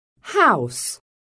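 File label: "HOUSE", English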